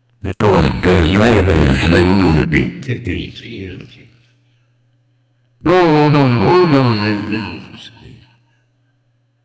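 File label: mans a mumbling voice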